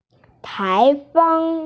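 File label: "taifeng", Mandarin Chinese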